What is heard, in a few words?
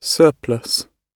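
"surplus", British English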